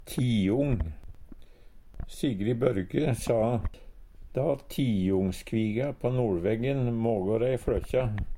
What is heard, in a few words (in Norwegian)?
tiong, Numedalsmål